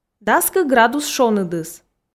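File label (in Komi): "дас кык градус шоныдыс", Komi, female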